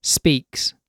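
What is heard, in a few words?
"speaks", British English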